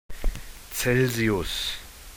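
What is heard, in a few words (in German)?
"Celsius", German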